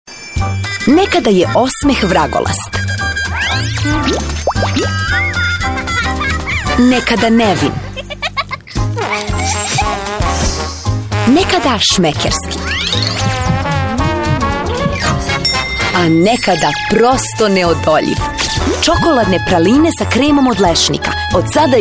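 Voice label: Serbian female commercial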